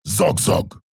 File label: Warsong Grunt, zh